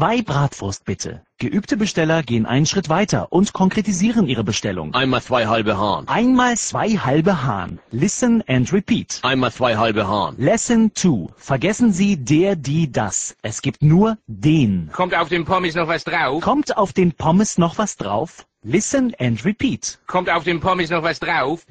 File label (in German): Imbiss Deutsch